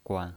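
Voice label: "guan", Mandarin Chinese, neutral tone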